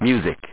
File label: w music